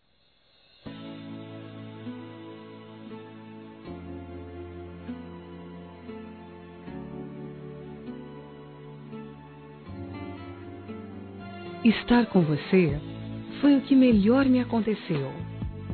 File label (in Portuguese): aniversario namorado, fem; Aniversários; ANIVERSARIO romantico